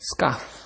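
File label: "scarf", Nigerian Pidgin, male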